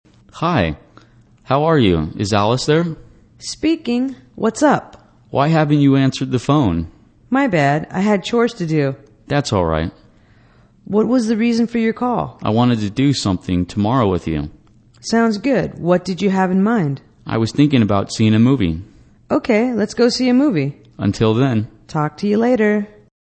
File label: english conversation calling a friend